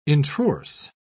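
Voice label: "introrse", English, uk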